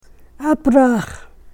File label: "apraax", Karuk